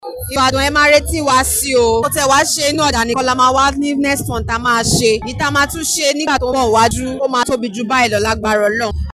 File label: YORUBA; clean up bodija market; fm partners fairaction charity foundation